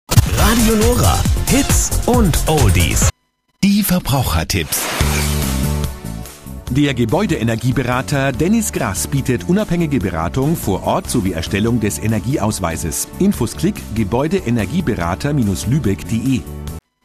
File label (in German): Werbung